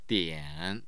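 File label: "dian", Mandarin Chinese, tone 3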